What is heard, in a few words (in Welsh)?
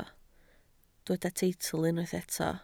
"teitl", Welsh